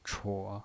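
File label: "chuo", Mandarin Chinese pinyin voice, third tone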